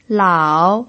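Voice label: "lao", Mandarin Chinese pinyin, tone 3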